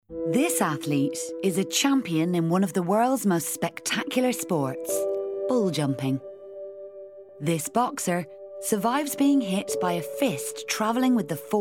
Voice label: documentary